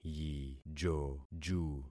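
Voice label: "yijoju", concatenated syllables; Affixing experiment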